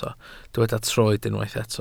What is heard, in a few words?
"troed", Welsh